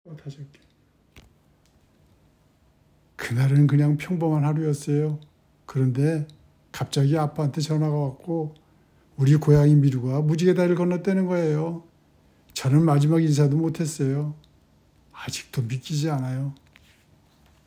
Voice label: Old Male Sad, korean voice emotion